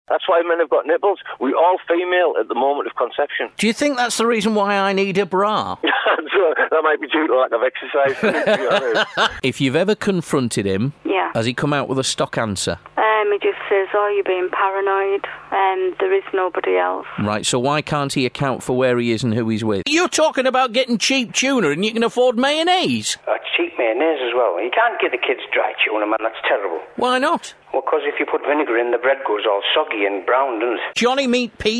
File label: phone-in aircheck